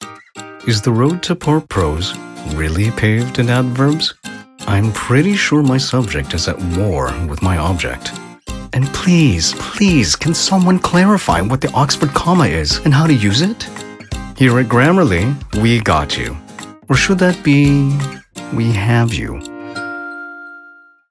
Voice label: Explainer